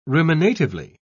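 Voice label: "ruminatively", English, British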